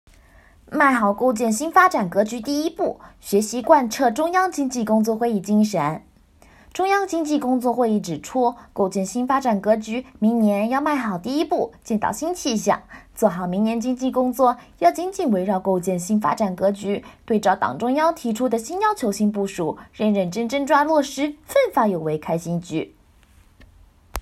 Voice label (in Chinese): 孩童萝莉音